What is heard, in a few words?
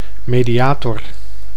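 "mediator", Dutch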